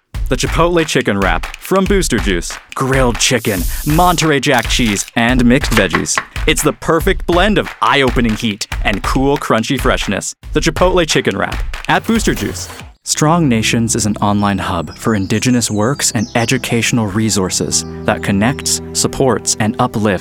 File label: Commercial Demo